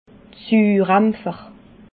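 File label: "surampfer", Alsatian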